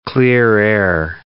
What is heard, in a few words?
"clear-air turbulence", English